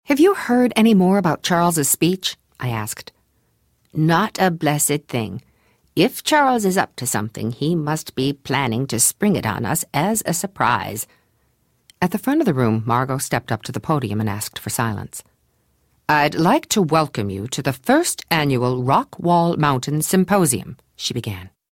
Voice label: AudioBook